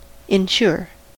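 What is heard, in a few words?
"insure", US English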